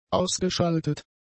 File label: "ausgeschaltet", German; adjectives